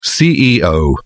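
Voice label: "ceo", British English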